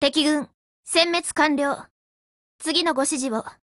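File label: WIN Japanese, Voice; GF Alarm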